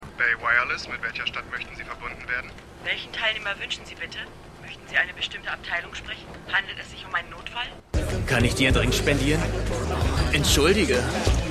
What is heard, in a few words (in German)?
Telefonstimme Mann Schwulenbar; The Wire